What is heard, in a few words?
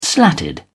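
"slatted", British English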